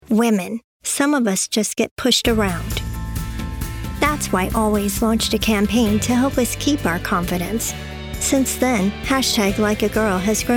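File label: English, US, female, commercial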